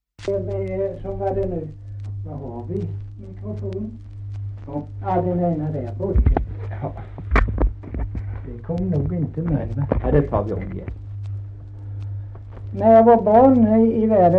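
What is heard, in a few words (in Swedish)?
Kristianopel Blekinge, dialekter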